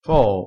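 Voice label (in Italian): "foo", vocabolario dialettale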